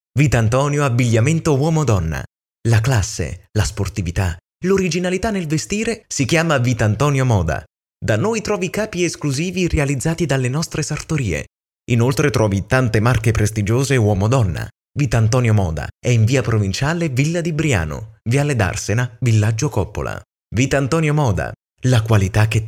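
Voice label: Italian male voice over, sales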